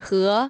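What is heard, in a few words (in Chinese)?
"河" Chinese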